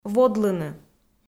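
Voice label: "водлыны", Komi, female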